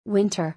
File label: "Winter", English